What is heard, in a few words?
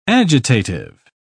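"agitative", British English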